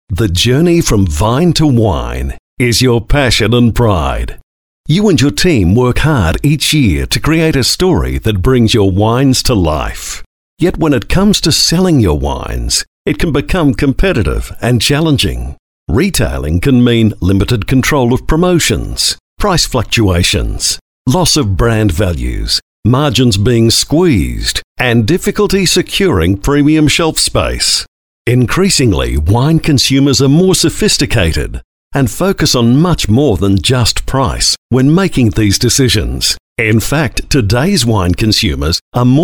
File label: male talent corporate